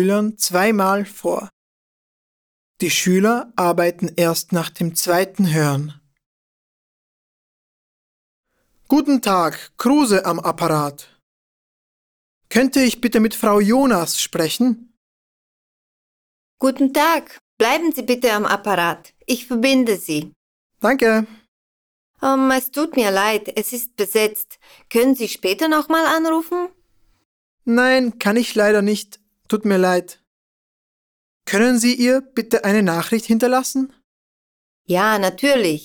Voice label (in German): HORTEXT Dialog